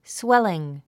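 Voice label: "swelling", English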